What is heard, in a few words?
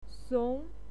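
"song", Mandarin Chinese, second tone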